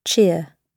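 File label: "cheer" British English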